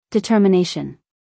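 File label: "determination", American English female; everyone-can-use-english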